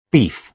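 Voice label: "beef", British English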